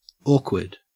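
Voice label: "awkward", Australian English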